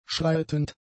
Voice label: "schreitend", German; adjectives